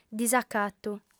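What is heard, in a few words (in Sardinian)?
"disacatu", female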